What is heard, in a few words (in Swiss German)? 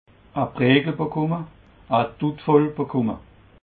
"brettel", alsace